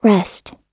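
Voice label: "rest", American English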